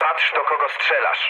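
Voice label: player friendly fire, mood low, Polish speech; War Thunder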